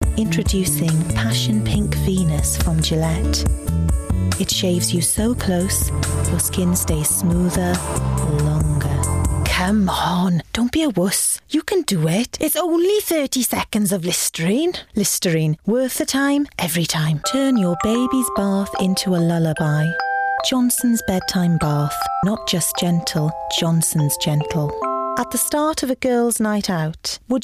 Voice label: Commericals